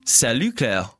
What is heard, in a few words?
conversation